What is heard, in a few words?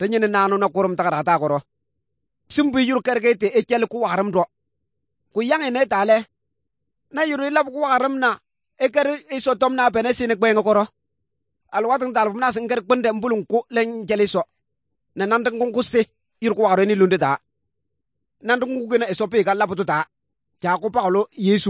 good news, kabiye bible